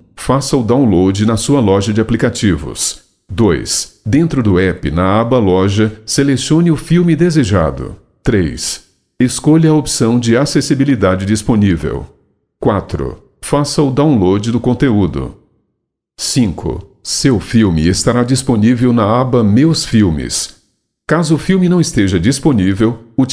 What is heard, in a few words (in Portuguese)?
Locução Movie Reading